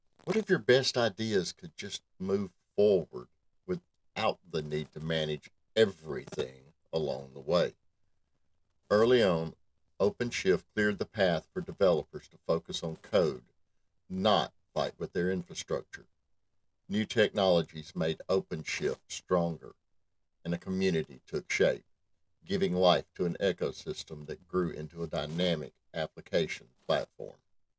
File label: Hype Video; Red Hat OpenShift